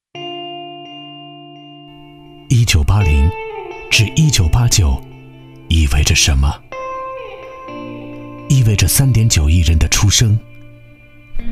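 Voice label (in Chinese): 男国大音（品质感-励志）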